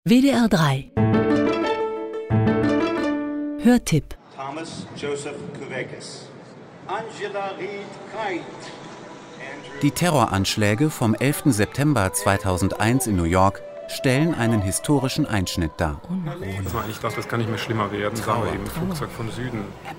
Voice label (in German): hörfunk trailer